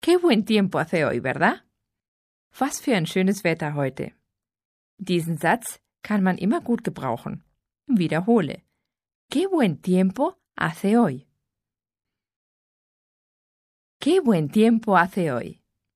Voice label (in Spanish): es audiolibro, locutora española; Spanisch unterwegs